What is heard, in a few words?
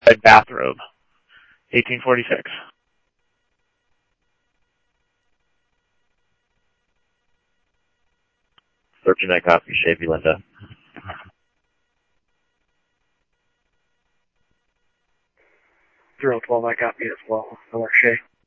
PD Police, scanner audio